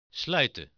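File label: "sluiten", Dutch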